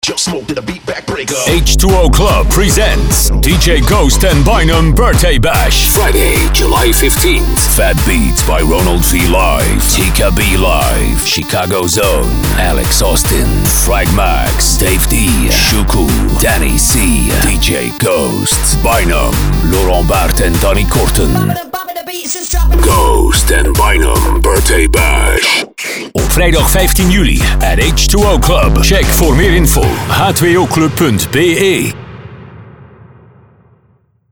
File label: party commercial; ghost bday bash